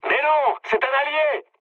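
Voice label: French player friendly fire mood med; War Thunder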